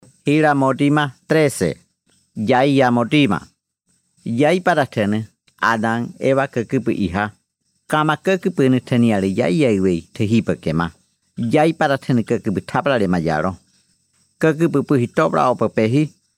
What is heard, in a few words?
audiobook Yanomamo; King of Glory; Yaiyamodima